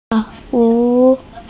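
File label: "lao hu" Chinese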